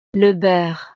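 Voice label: "le beurre", French; desayuno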